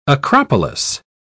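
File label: "acropolis", British English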